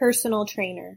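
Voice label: personal trainer, English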